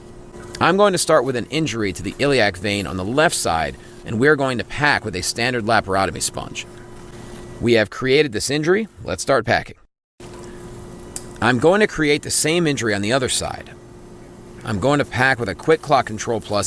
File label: Surgical Narration Instructional